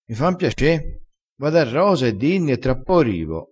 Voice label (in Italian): "piace", crespinese